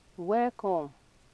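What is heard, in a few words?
"welcome" Nigerian Pidgin, female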